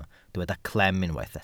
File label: "clem", Welsh